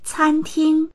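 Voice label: "can ting", Mandarin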